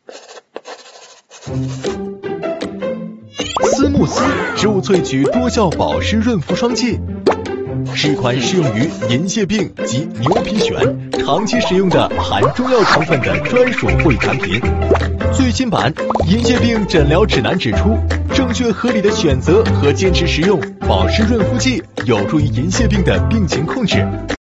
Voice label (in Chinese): 科普年轻时尚; 思慕思